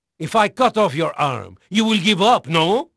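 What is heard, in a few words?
Portuguese male, voice; Pirates Of The Caribbean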